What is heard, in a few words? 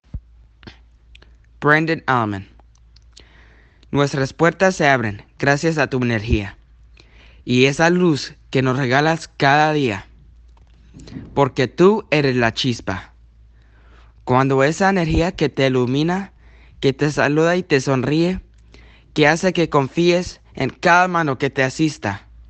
Spanish